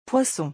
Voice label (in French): "poisson", French